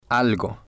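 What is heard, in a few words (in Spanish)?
"algo", spanish, male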